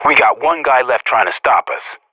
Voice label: radio bot kill count; Source Model Packs; Counter Strike Model Pack; CS2; professional